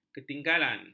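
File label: "ketinggalan", Malay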